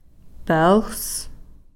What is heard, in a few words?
"peels", moccasin